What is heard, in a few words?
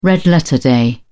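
"red-letter day", British English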